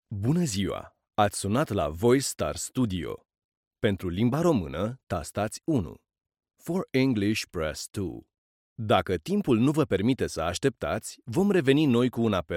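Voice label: Romanian, IVR; GoLocalise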